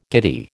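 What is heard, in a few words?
"giddy", American English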